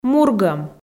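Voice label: "мургӧм", Komi, female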